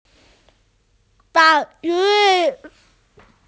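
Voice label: "da4yue1", Mandarin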